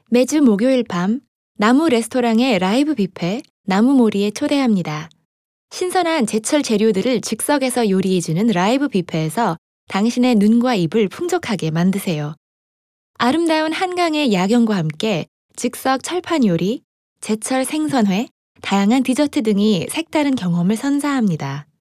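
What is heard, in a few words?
Korean Army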